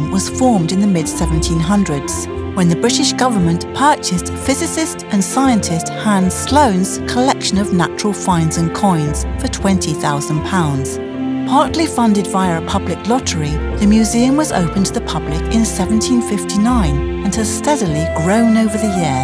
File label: Tour Guide sample